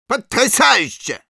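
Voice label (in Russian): Medic good job, Russian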